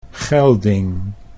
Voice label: "gelding", Dutch